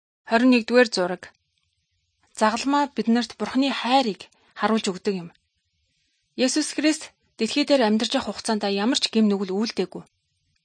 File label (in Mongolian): good news mongolian